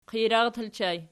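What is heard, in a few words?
"qijrar" ergative plural, Archi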